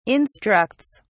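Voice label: "instructs", British English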